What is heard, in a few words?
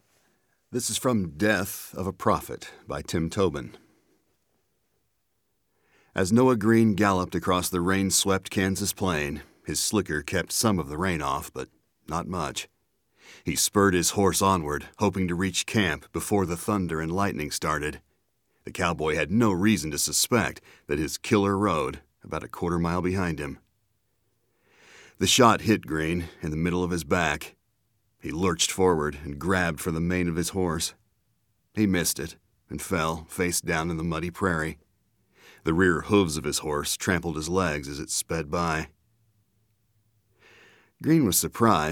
3 person Narrative; Death Of A Prophet